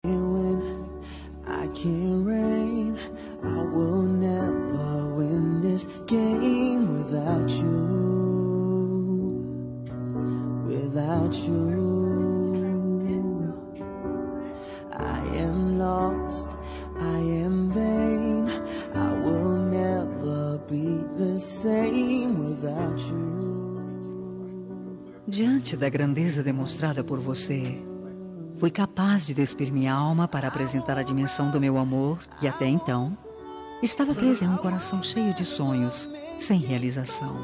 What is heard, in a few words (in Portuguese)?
romântica, Telemensagem Romântica – Voz Feminina